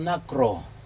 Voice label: "nakro", chief to another, Aneityum